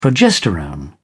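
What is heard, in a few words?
"progesterone", British English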